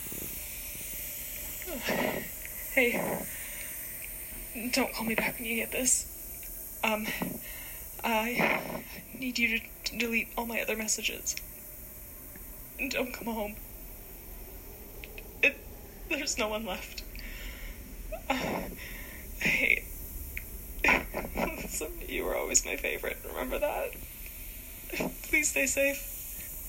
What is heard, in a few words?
Voicemail